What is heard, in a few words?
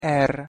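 "r", Hungarian pronunciation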